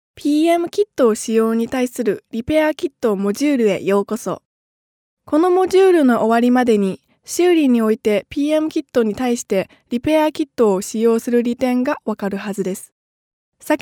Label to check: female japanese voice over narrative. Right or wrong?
Right